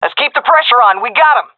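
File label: round winning, female; vo; Counter Strike Model Pack; CS:GO; seal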